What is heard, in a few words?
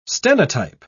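"stenotype", British English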